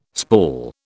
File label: "spall", British